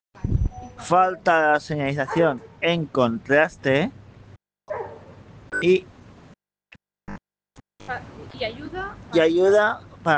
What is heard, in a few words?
Voice message